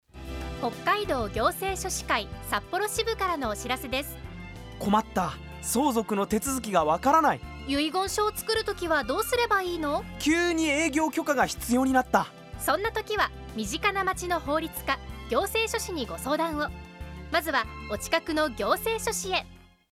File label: RADIO CM; Sapporo